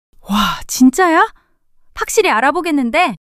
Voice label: surprise, monologue